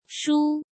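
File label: "Shū", Chinese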